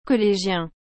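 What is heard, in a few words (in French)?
"collegien", French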